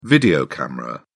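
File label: "video camera", British English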